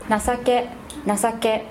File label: "nasake", female